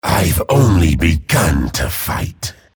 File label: chatter neutral